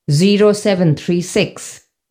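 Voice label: time 0736, Indian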